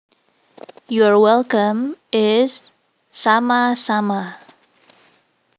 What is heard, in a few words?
You're Welcome Indonesian